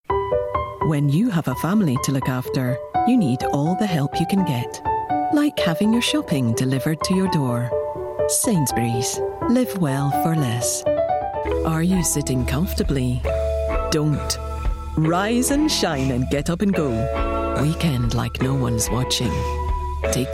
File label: Commercial